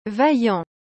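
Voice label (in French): "vaillant", French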